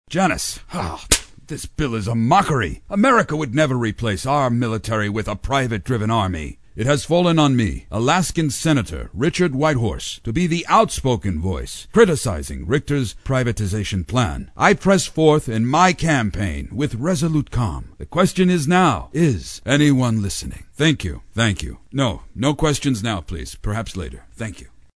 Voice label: SENATOR- Serious; animation

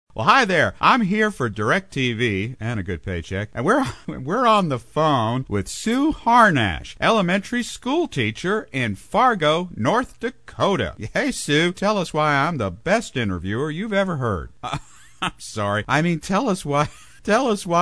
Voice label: Man on the Street Interviewer; commercials

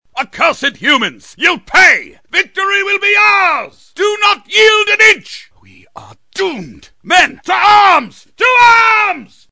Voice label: Battle Commander, Most Noble; animation

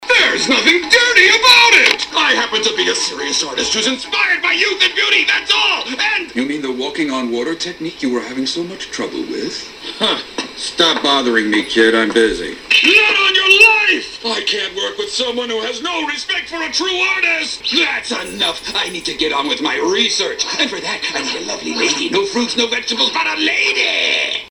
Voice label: WILD, cartoon; JIRIAYA; NARUTO; PERVY SAGE; animation

